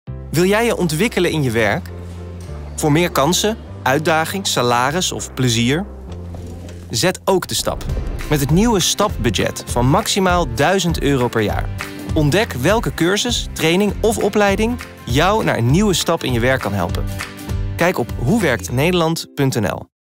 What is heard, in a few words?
voice-overs; Ministerie SZW STAP